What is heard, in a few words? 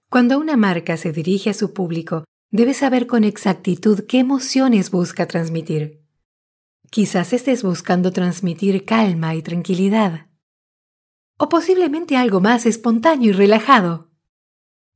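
Spanish, off, female, presentation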